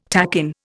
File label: "takin", Indian